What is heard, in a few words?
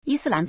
"yi1si1lan2", Chinese